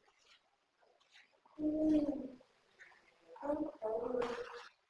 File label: "oooh, I'm cold", basement